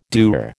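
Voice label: "dour", American English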